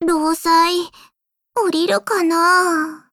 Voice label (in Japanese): Voice JP